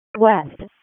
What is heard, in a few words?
WC EMS ALERT, p25; wake